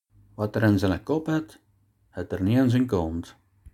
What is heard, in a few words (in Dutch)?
kop kont, dialect